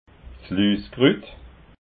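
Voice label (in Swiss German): "luskrut", Alsatian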